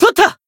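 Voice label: Voice finish, Japanese; oboro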